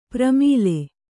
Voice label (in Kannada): "ಪ್ರೇಮಲೆ", kannada